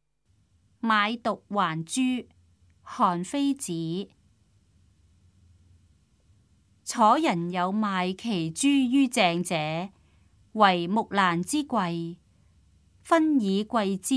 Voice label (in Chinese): cantonese; 買櫝還珠; 粵語